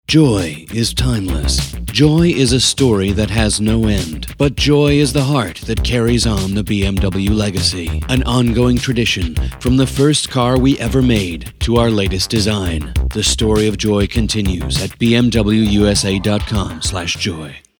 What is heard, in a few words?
serious